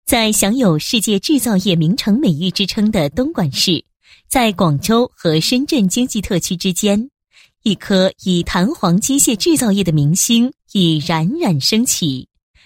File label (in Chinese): 女 企业; 侨鼎弹簧机械有限公司